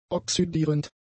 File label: "oxydierend", German; adjectives